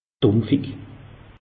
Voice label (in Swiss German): "dumpfig", alsace; meteo